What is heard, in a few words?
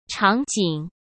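"场景", Mandarin Chinese; hsk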